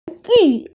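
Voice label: "wan ju" Chinese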